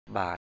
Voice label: "bà:t" Thai, 'baht, tical'; บาท